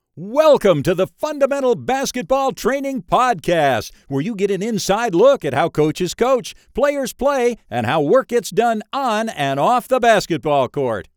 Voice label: Podcast Intro Basketball